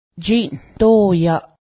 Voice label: bedbug, Cayuga; jiˀnǫ̱hdó:yaˀ